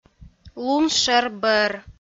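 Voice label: "лун шӧр бӧр", Komi, female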